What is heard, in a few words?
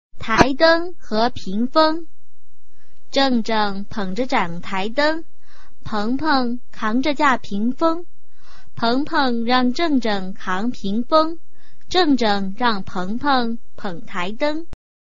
chinese tongue twister